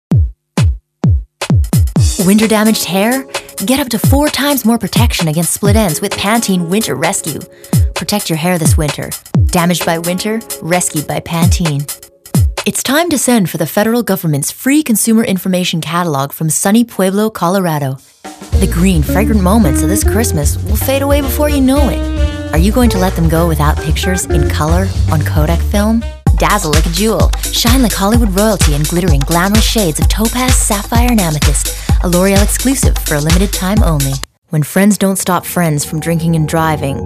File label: commercial demo